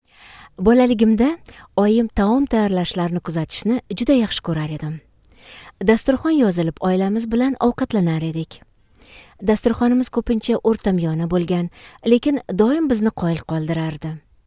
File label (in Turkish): Ozbekce Kadin, Kadın Ses